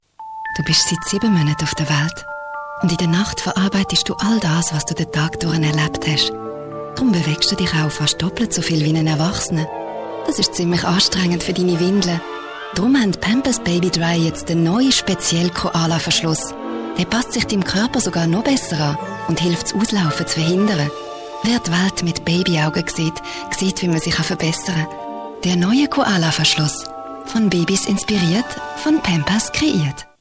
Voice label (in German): schweizerisch, OFF, frauen; Pampers